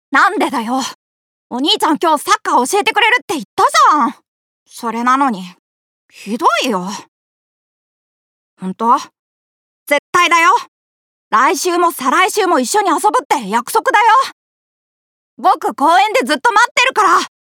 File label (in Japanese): 少年